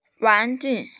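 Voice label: "wan2ju4", Mandarin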